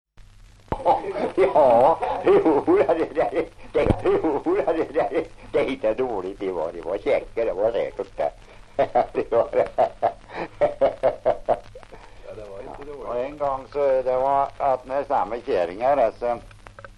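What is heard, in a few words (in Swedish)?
Järnskog Värmland, dialekter; svenska sagor; Folkminnen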